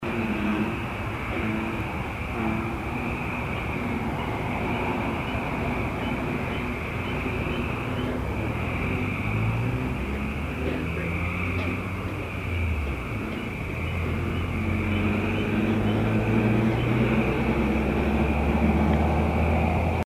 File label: Ferris-wheel Unexplained voice; Case 022 Lake Shawnee Park